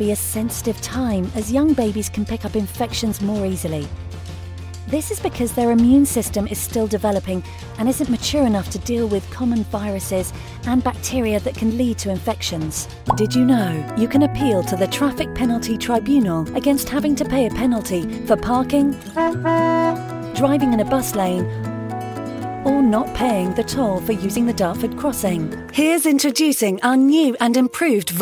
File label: Corporate Narration voicereel